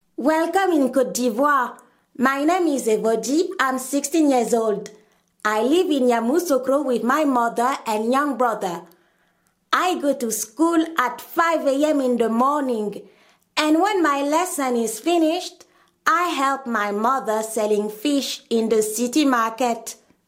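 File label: French African, Accented English; GoLocalise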